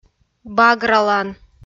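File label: "багралан", Komi-Zyrian, female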